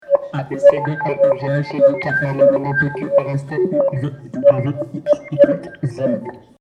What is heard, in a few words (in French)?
voix robo; residence MDQ